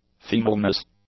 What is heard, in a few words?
"femaleness", English